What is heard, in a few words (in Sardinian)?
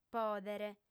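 "pòdere", female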